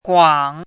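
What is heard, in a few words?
"guang3", Mandarin Chinese syllable, pinyin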